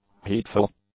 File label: "heedful", English; adjectives